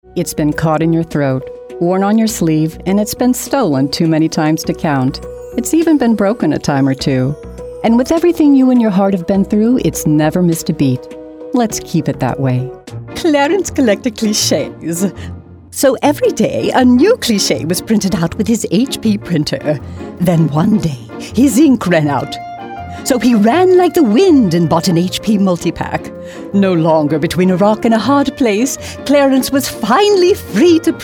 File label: Commercial